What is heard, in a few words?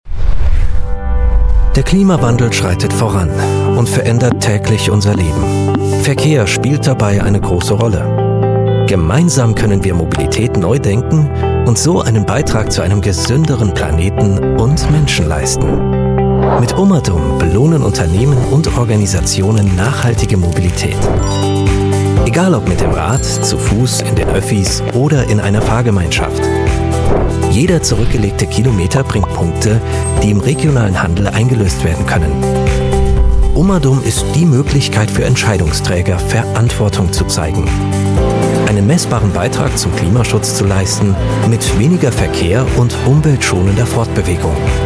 german voice over male narrator